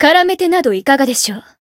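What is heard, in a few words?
Voice variable chant, Japanese; felmina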